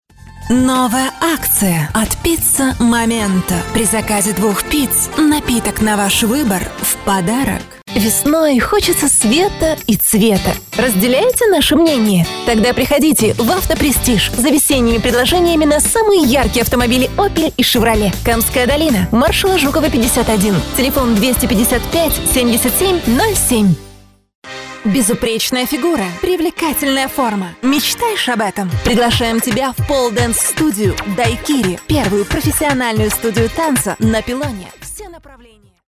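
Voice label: Russian Russia female sales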